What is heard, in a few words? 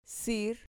"cir", Spanish